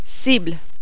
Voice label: "cible", French